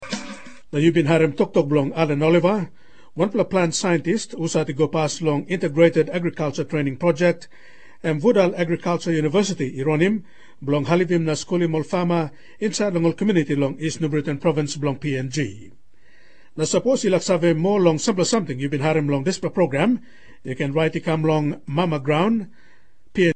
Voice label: Tok Pisin; Mama Graun